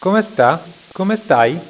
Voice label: comesta, italiaans